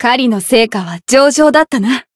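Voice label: win, Japanese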